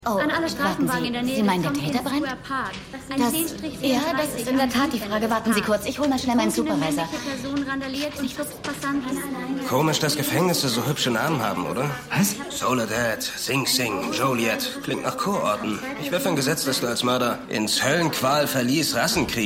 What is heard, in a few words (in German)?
Notrufdisponentin; The Unusuals